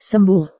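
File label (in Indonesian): "sembuh", Indonesian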